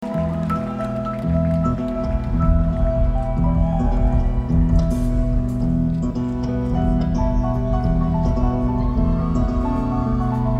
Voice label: narration